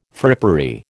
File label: "frippery", American